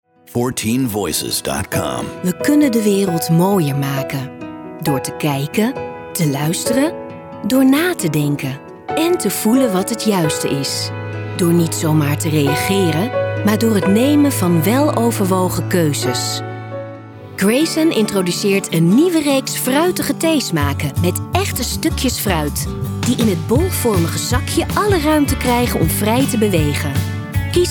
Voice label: Commercials